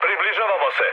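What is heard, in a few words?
Serbian near waypoint mood high; speech; War Thunder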